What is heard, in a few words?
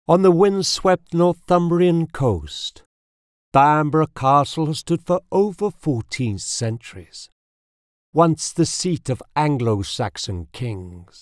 Tour Guide, British Male Voice; Bamburgh Castle